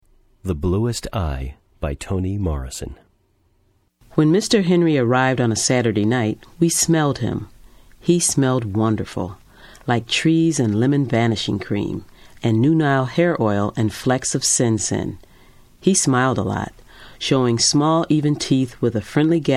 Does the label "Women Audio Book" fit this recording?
Yes